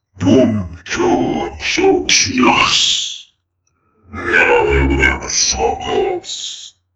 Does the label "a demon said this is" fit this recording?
Yes